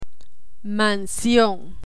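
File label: "mansion", Spanish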